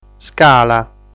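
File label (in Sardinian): "Scala", Sardinian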